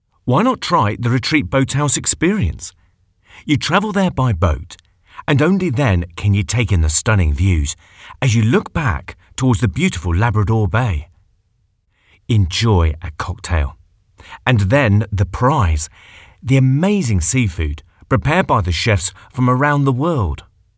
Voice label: natural